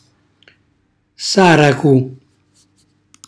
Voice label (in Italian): "saracu", Calabrese; cucina calabrese